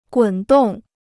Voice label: "滚动", Chinese

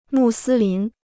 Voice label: "穆斯林", Chinese

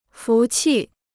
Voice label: "服气", Chinese

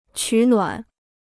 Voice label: "取暖", Chinese

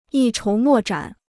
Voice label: "一筹莫展", Chinese

